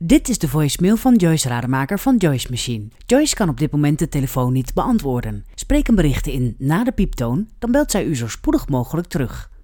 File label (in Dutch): Zakelijk voicemailbericht voorbeeld